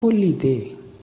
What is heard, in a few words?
"Kholide", Kangri; Opened